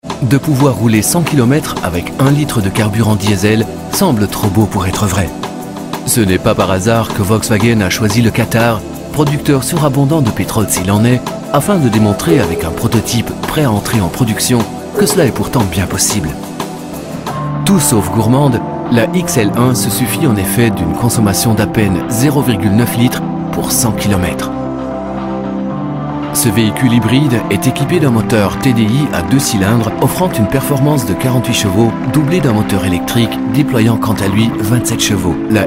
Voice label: French corporate videos